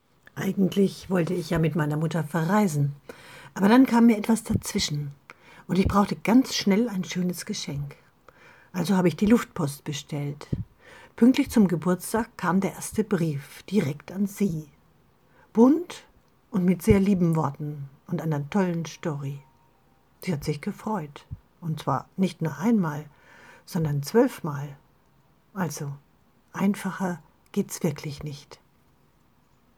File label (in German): Sprachmemo